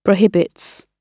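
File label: "prohibits", British English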